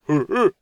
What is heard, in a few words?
male NPC; server-nalc; mobsounds